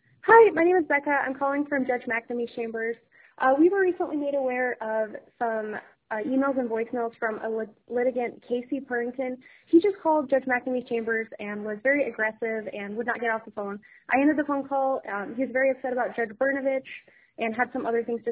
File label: Voicemail